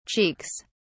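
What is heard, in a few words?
"cheeks", British English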